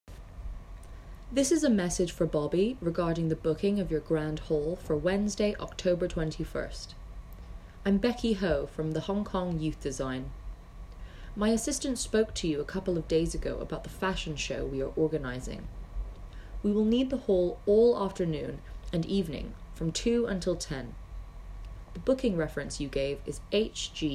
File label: voicemail